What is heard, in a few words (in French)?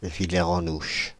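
"Villers-en-Ouche", French, Paris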